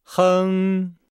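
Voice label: "heng", Chinese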